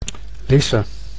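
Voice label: "Lisse", Dutch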